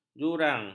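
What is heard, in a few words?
"jurang", Malay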